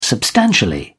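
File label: "substantially", British English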